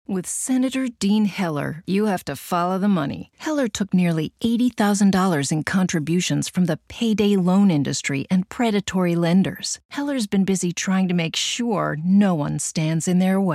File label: english american political ads